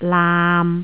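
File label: "laam6", Jyutping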